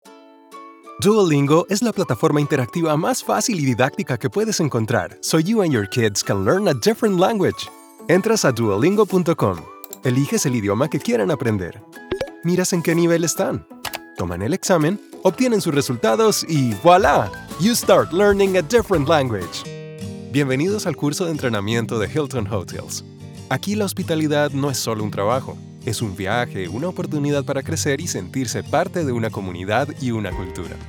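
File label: Latin American Spanish eLearning; GoLocalise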